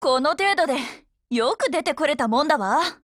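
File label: WIN, Japanese; 97typeSMod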